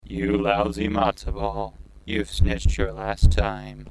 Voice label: RadioPlay; Robo-snitch